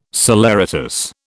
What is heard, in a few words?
"saleratus", British